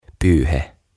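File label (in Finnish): "Pyyhe", suomeksi; OTAVA KONSERNI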